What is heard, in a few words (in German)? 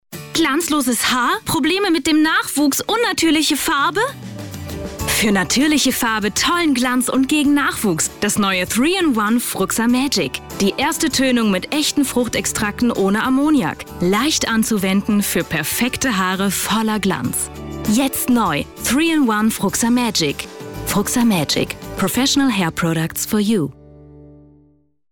Werbung Haartoenung